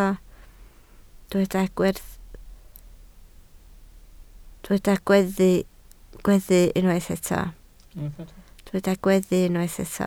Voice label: "gweddu", Welsh